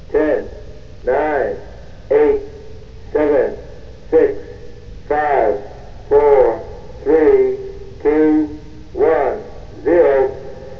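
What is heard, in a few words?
countdown, military; EFFECTS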